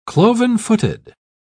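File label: "cloven-footed", British English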